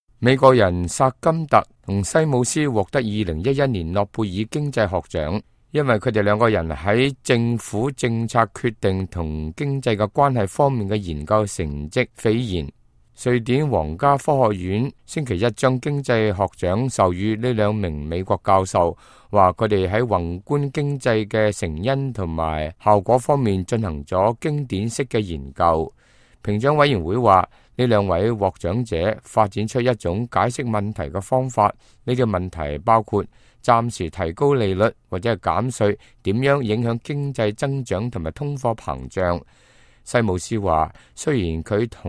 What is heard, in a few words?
cantonese, news